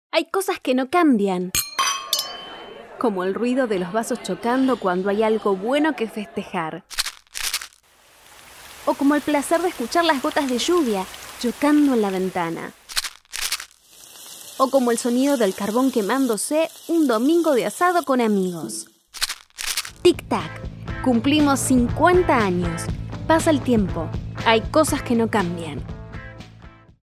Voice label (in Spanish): Publicidad Radio; Fulantres